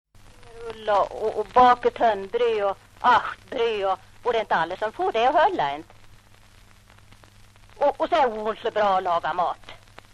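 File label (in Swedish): Arbrå Hälsingland, dialekter, svenska sagor; Folkminnen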